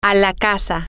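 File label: Spanish, female; to The House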